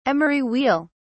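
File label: "emery wheel", British English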